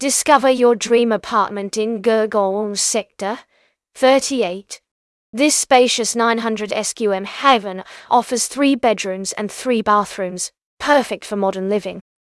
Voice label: narration audio